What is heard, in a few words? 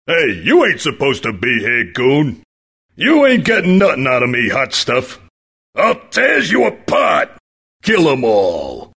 mafioso voice medly; Freedom Force; mafioso villain